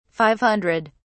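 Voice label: "five hundred", British English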